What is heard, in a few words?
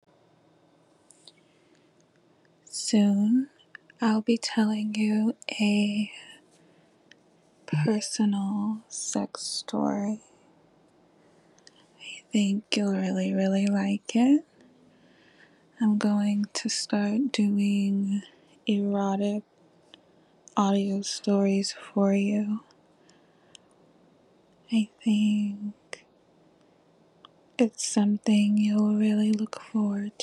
asmr style; I have an announcement to make..; OnlyFans